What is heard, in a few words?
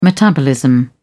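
"metabolism", English, British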